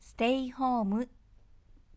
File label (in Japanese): ステイホーム女性